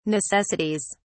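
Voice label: "necessities", British English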